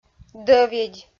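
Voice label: "дӧведь", Komi, female; kpv audio dict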